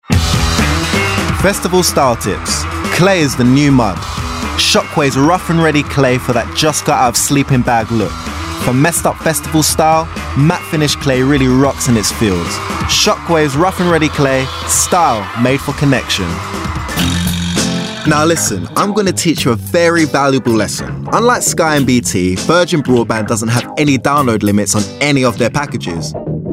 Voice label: Commercials Mix

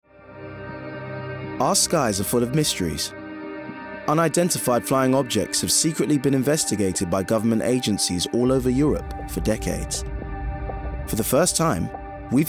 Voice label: UFOs Documentary

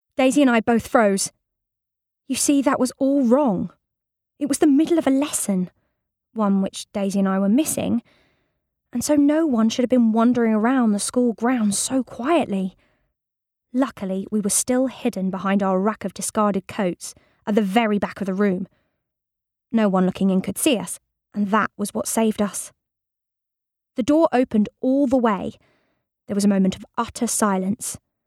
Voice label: Audiobook; Murder Most Unladylike

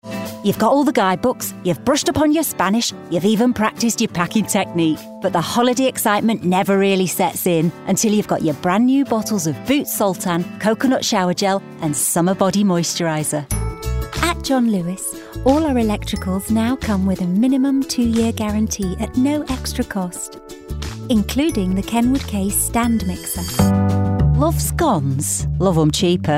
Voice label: Commercial Showreel

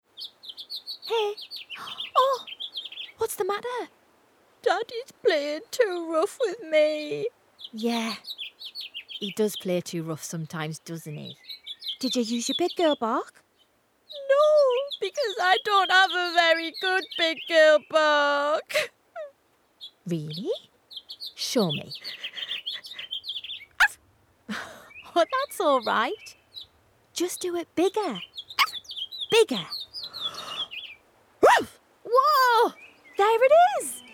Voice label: Animation Kids Cartoon

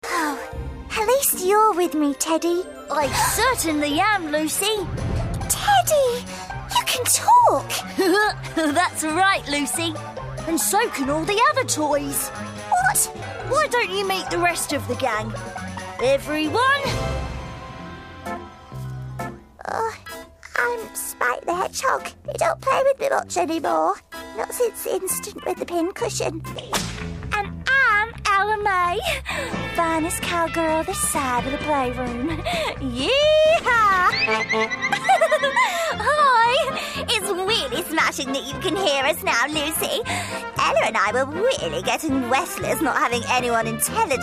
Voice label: Animation

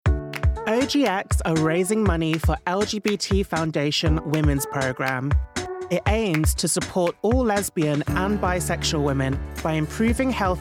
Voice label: Commercials Montage; Clear

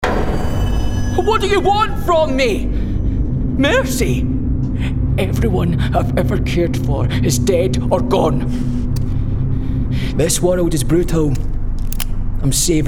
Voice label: Gaming Everyman Natural; Gaming Character; Everyman Scottish

